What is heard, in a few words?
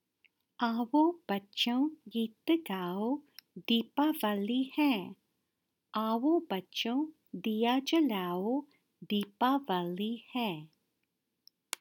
deepavalli pronunciation; Diwali Dance